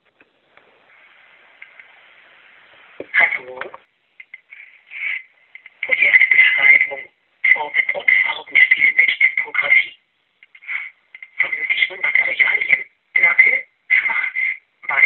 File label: Voicemail